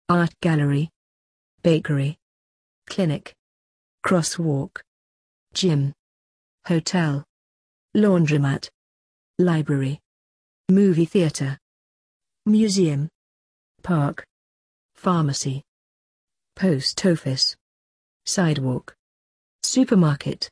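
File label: British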